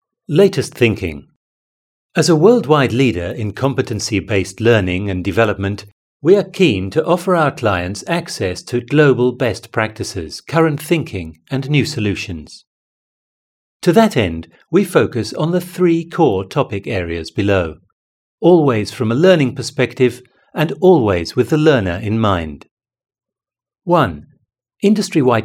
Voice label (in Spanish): locutor ingles